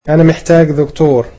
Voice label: I need a doctor, egyptian